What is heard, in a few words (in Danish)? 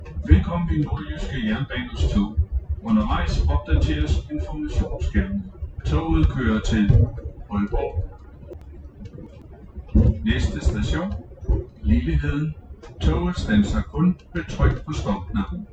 NJ velkommen, toglyde